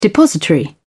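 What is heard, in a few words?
"depository", English, British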